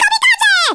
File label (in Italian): "Kamikaze", Italian, Speech; Worms Armageddon